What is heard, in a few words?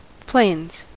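"plains", American English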